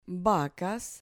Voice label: "vacas", Spanish